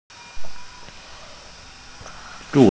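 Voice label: "zhuo zi", Mandarin Chinese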